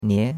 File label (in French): "nie2", chinois; Cours-de-Chinois